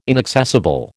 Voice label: "inaccessible", American

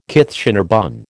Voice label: "Kitchener bun", American

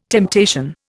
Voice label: "temptation", Indian

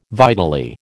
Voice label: "vitally", American

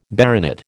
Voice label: "baronet", American

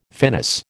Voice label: "finis", American

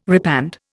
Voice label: "repand", Indian

